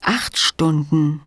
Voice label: german 5 hour